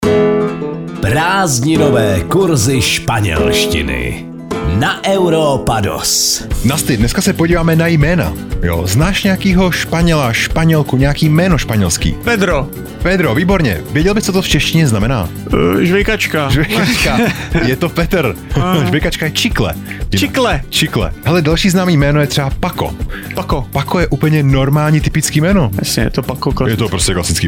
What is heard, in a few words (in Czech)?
spanelstina spanelstina